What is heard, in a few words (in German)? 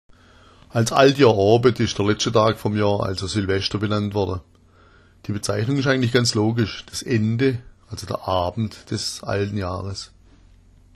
"altjahrabend", schwaebisch